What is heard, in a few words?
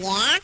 "yeah" cartoon, voice